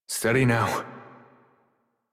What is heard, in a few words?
Horse Calm Adult; Warframe; Duviri